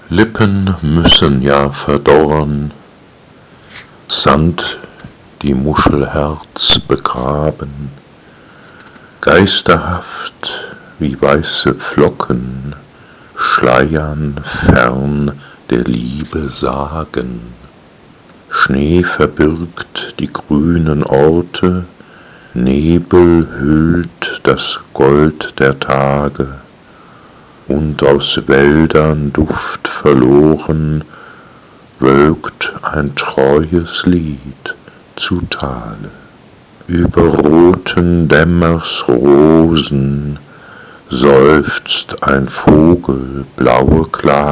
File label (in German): Die weibliche Stimme